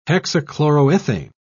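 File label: "hexachloroethane", English, British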